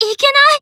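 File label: Voice Emo Damn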